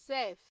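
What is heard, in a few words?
"sef", Nigerian Pidgin, female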